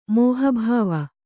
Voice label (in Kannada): "ಮಹಾಬೇವು", Kannada